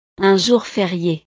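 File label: "un jour ferie", French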